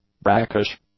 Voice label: "brackish", English; adjectives